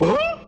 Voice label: huh reverb; scoob; ThrillGames